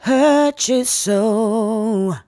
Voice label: "Hurt You So", vocal phrases; Ultimate Vocals

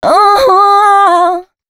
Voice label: female, Dance Ultra Voice hooklines; Mutekki Media; Dance Ultra Voice VOL-1

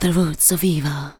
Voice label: "ROOTS OF EVIL", SPOKEN; Techno Trance Essentials

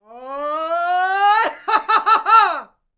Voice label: MEXICO VOICE, MEXICAN; ILIO; Vocal Planet VOL-5

